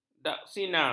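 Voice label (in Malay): "daksina", Malay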